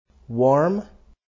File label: "warm", Standard American accent